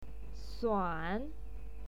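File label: "suan", Mandarin Chinese, third tone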